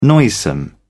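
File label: "noisome", British English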